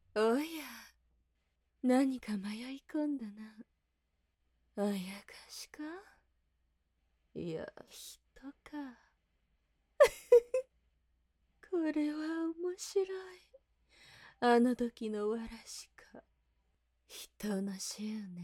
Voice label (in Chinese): 外籍日语女 角色 御姐